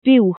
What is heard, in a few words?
"COW", welsh